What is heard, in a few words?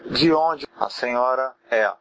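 where from, Brazilian Portuguese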